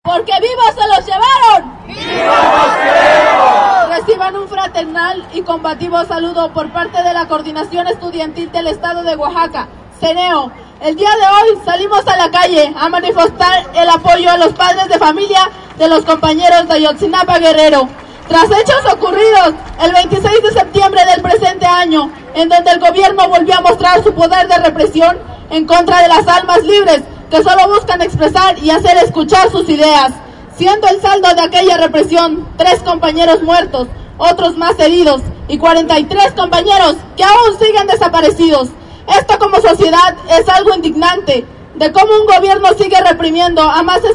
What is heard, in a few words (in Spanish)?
mitin pronunciamiento; 2014-10-08 marcha xayotzi; ENEO